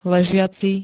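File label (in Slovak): "ležiaci", Slovak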